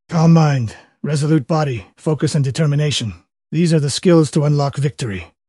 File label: happy; fertig classic games; kage